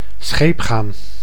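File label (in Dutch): "scheep gaan", Dutch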